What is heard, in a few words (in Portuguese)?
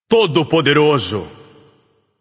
alert notify kill streak, vox caster portuguese